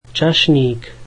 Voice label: Waiter, slovak